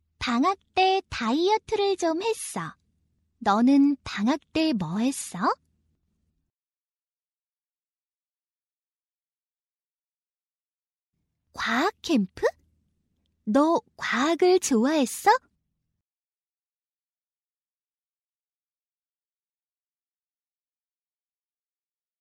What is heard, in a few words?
korean